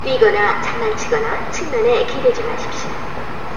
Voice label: Escalator message, Korean

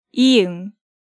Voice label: "ieung", Korean

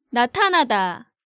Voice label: "Natanada", Korean

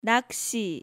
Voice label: "Naksi", Korean

